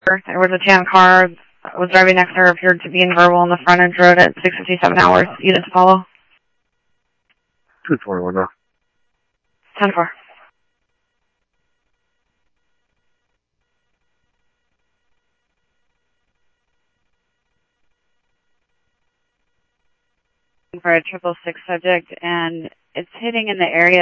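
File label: police department, scanner audio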